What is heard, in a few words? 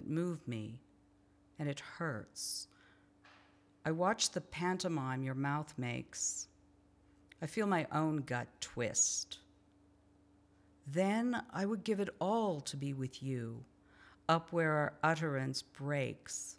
speaking in tongues